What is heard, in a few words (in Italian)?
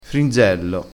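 "frinzello", vocabolario dialettale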